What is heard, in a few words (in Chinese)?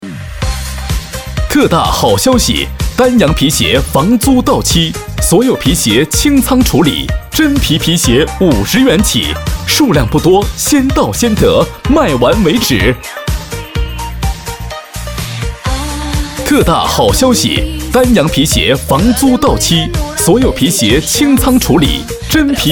男 广告 皮鞋 促销; 丹阳皮鞋